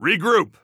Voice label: radio bot regroup; vo; Counter Strike Model Pack; CS:GO; phoenix coop